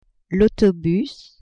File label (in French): "autobus", french; transport